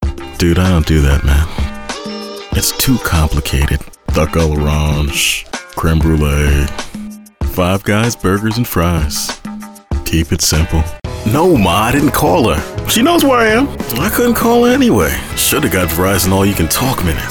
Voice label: Men Commercial

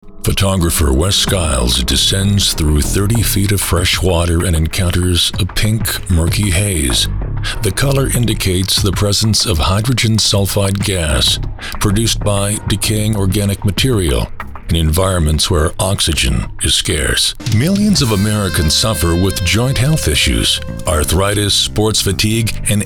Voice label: Narration

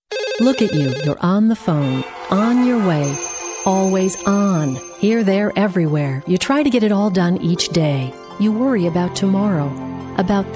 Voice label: Women Commercial

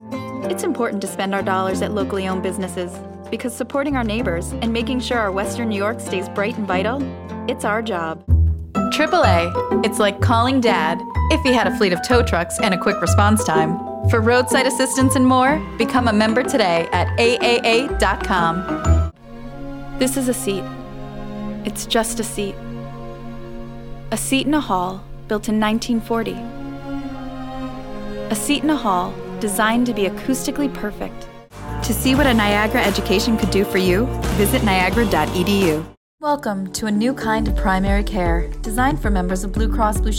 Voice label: comm, Women Commercial

